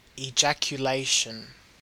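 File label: "ejaculation", Australian English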